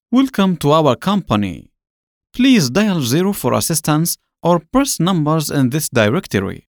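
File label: Arabic Accented English; GoLocalise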